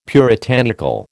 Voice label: "puritanical", American

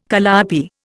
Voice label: "calliope", Indian

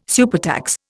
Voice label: "supertax", Indian

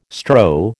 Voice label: "strow", American

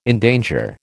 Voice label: "endanger", American

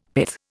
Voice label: "pith", Indian; Dictionary

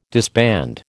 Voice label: "disband", American

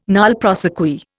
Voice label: "nolle prosequi", Indian

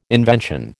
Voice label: "invention", American

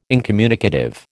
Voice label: "incommunicative", American

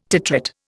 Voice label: "titrate", Indian

